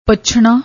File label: "pachna", Punjabi